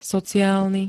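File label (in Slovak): "sociálny", Slovak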